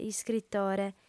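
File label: "iscritore", Sardinian, female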